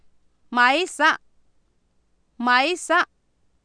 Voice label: maa IsA, Oapan, female; Oapan minimal pairs 2000

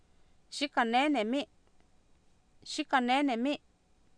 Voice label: xikah nEenemI, Oapan minimal pairs female; Oapan minimal pairs 2000

